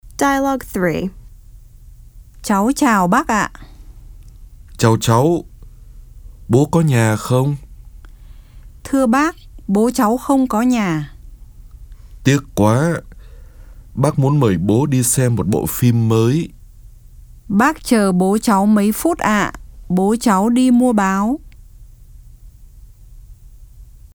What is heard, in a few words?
Dialog